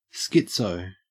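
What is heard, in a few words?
"schizo", Australian English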